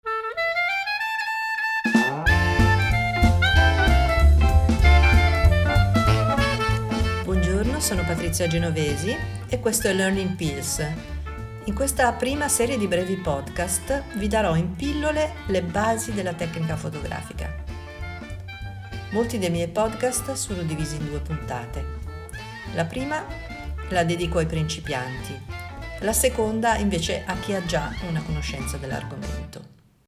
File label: trailer